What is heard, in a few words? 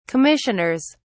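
"commissioners", English, British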